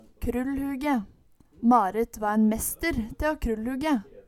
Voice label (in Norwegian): krullhuge, Numedalsmål